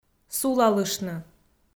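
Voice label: "сулалыштны", Komi, female; kpv; audio dict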